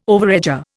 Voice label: "overeager", Indian